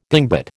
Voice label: "dingbat", American